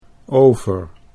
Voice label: "over", Dutch